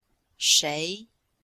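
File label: "shei2", Chinese, female; Cours de Chinois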